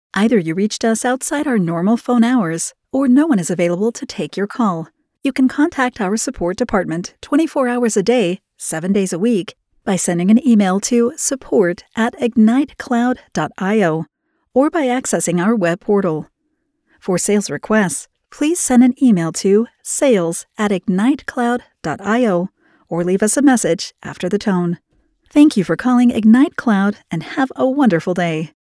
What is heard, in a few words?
Unavailable Greeting